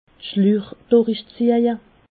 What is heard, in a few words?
"schlich", alsace